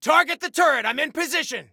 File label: Target Turret, Soldier Male